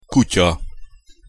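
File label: "kutya", Hungarian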